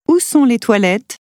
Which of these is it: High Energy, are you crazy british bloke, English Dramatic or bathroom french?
bathroom french